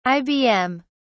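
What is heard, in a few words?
"ibm", British English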